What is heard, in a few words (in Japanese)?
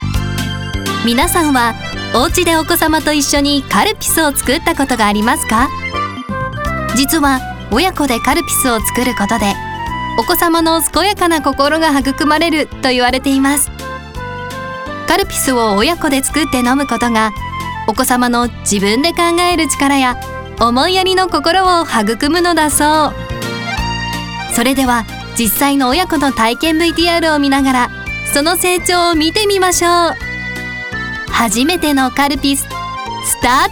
企業VP